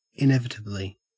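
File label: "inevitably", Australian English; pronunciations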